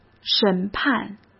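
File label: "审判", Mandarin Chinese; hsk; chinese-flashcards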